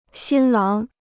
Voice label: "新郎", Chinese

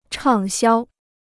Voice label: "畅销", Chinese

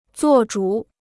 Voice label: "做主", Chinese

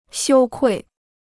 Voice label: "羞愧", Chinese

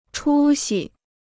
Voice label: "出息", Chinese

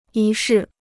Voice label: "仪式", Chinese

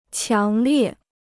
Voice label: "强烈", Chinese

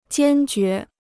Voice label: "坚决", Chinese

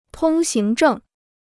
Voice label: "通行证", Chinese

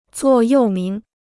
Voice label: "座右铭", Chinese; zuò yòu míng; motto; maxim

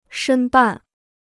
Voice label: "申办", Chinese

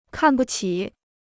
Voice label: "看不起", Chinese

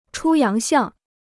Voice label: "出洋相", Chinese

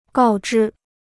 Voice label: "告知", Chinese

